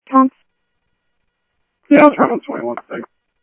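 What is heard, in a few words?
Lakes Sheriff Lake Patrol, scanner audio trunk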